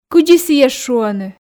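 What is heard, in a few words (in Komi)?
кыдзи сійӧс шуӧны, Komi, female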